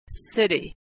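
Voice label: "city", American English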